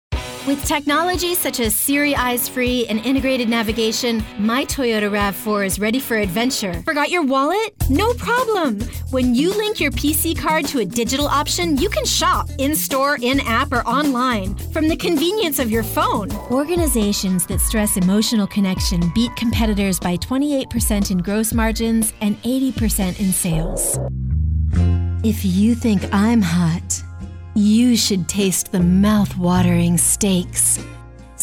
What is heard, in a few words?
english us and canada female commercial